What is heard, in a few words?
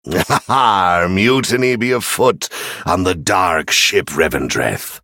male; venthyr pirate day